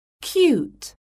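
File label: "CUTE", English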